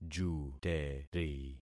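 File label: "juteri", concatenated syllables; Affixing experiment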